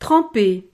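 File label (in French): "trempe", French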